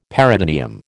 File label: "peritoneum", American